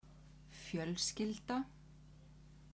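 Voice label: "fjolskylda", Icelandic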